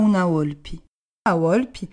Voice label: "volpi", Gravona